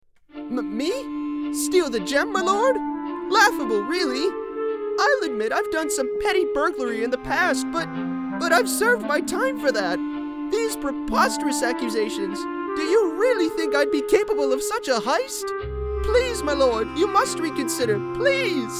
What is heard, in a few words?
Animation